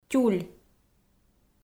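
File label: "тюль", Komi, female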